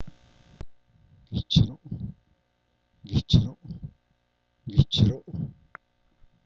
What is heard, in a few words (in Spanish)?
barba, Zapoteco San Pablo Villa de Mitla; cuerpo; Vocabulary; sta maria albarradas